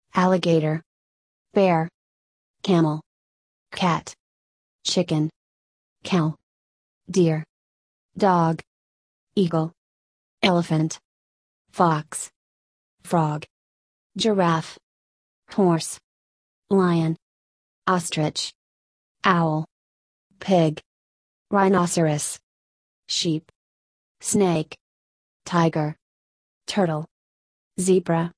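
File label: American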